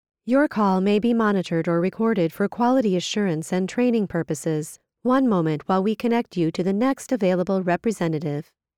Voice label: General voicemail